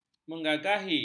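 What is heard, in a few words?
"menggagahi", Malay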